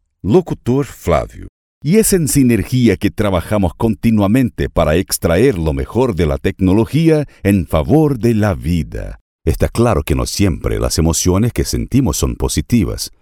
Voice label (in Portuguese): Brasilerio Espanhol